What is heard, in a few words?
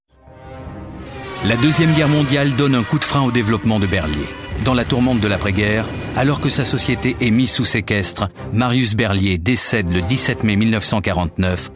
M, FR FR